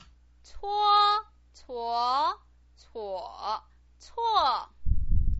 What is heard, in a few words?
"cuo", Chinese pinyin